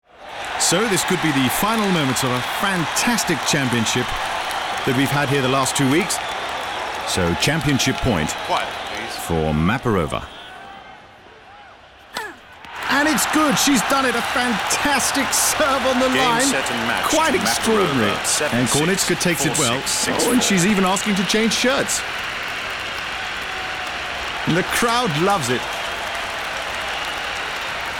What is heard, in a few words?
commentator Tennismatch